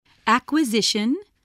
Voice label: "acquisition", English voice